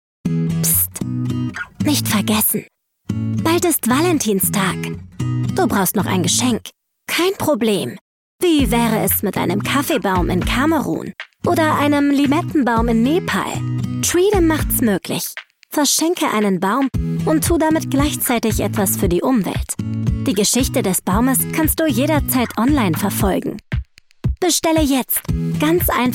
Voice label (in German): Werbung; Treedom